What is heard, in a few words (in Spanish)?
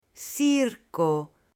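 "circo", Spanish, female; LUGARES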